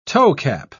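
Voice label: "toecap", British English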